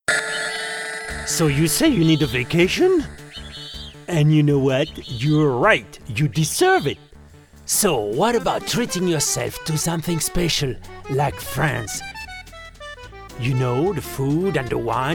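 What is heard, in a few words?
English French accent, male voice over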